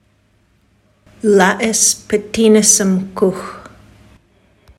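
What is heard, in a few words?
Condolences sending you good thoughts, secwepemc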